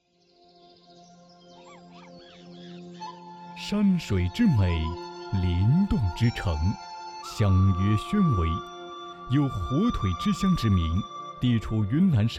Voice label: 宣传片; 毕业设计

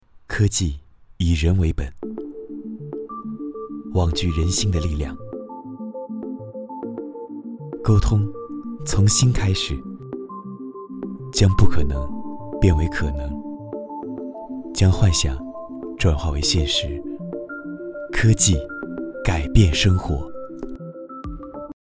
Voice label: 科技感

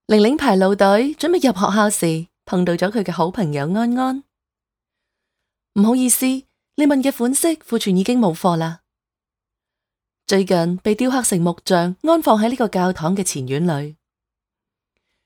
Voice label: 港粤英

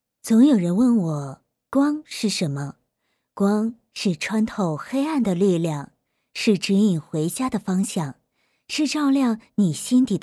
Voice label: 灯饰大气

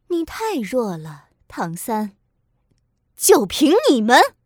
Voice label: 御姐角色

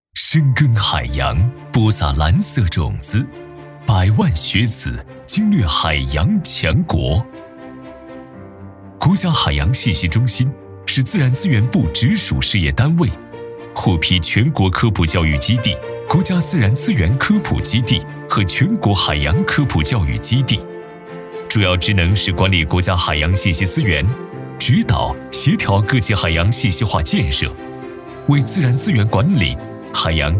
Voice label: 科普基地宣传片; 国家海洋信息中心

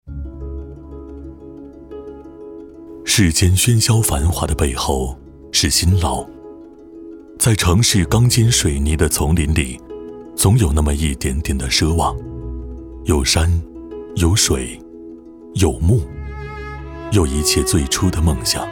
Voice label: 广告品质优雅

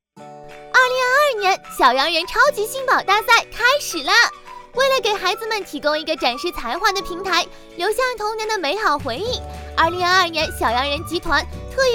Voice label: 推广; 小洋人

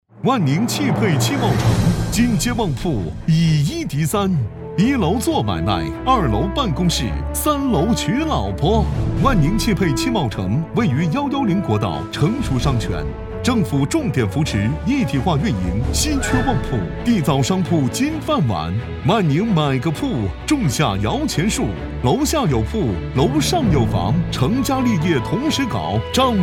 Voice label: 大气广告; 万宁

